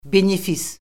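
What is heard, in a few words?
"benefis", Azerbaijani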